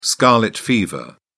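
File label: "scarlet fever", British English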